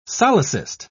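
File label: "solecist", English, British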